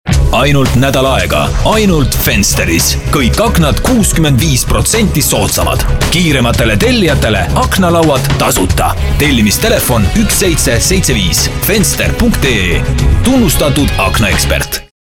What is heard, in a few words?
estonian commercial